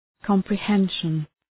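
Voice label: "comprehension", English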